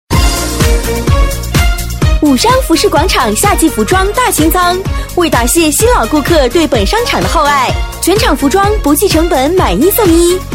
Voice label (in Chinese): 促销广告 商场清仓促销 激情力度, 国语女声配音